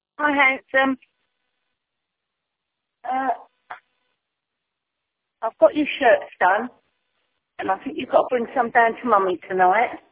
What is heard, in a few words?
voicemail